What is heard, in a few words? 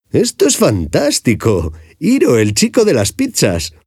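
Spanish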